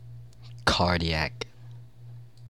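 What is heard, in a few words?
"cardiac" English, American accent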